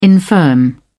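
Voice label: "infirm", British English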